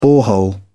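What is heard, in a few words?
"borehole", British English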